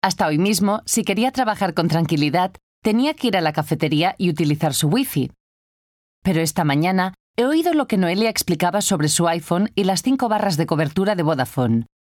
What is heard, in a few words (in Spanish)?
castillian; vodafone